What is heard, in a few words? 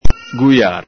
"guiàre", Venetian